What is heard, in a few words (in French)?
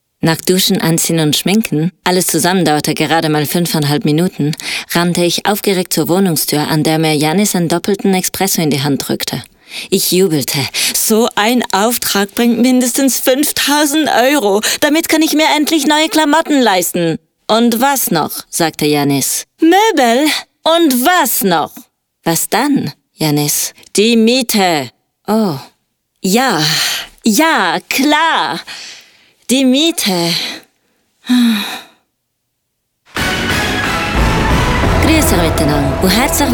allemand et suisse all